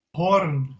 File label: "horn", Anglo Saxon